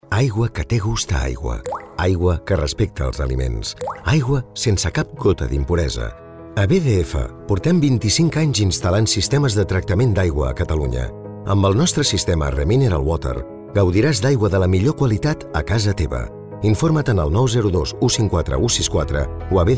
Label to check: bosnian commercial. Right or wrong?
Wrong